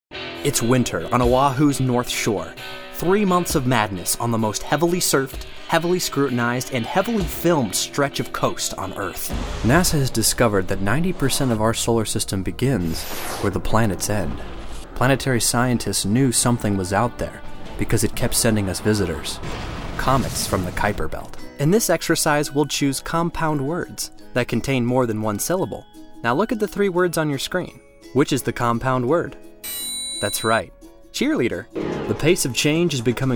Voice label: Narration